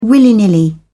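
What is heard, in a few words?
"willy nilly", British English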